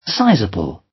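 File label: "sizeable" British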